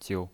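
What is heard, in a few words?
"jiu", neutral tone, pinyin voice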